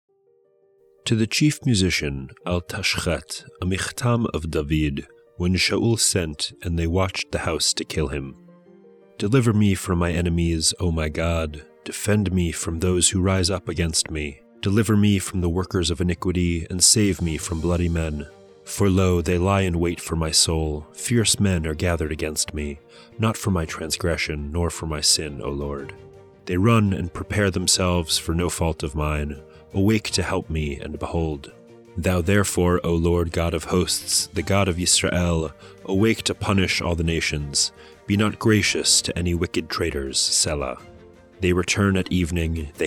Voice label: poetry and prayer psalm english